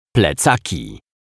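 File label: "plecaki", Polish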